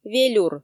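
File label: "велюр", Komi, female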